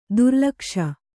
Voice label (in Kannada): "ದುರ್ಲಕ್ಷ", kannada